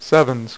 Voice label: "SEVENS", Dealer's Speech; PowerPOKER